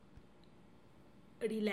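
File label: "rileslady", Teotitlán del Valle Zapotec; levantar el tapete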